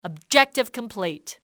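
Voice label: objective complete, english female; XBOX; enemy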